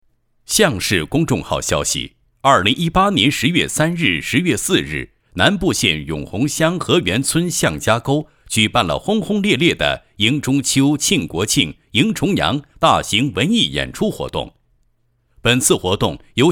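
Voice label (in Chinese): 男某地迎国庆配音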